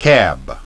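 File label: "cab", English pronunciation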